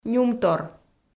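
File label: "нюмтор", Komi, female; kpv audio dict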